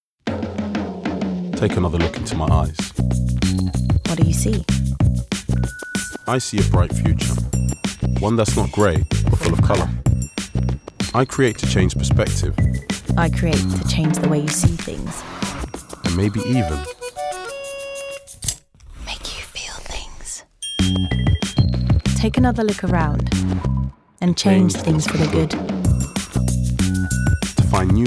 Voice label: male german comercial; ideasonora